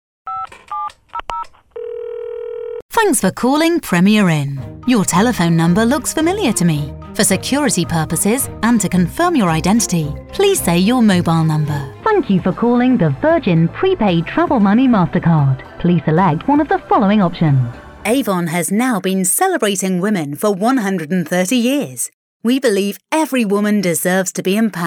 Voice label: IVR Showreel